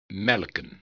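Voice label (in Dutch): "melken", Dutch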